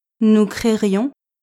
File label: "nous créerions", French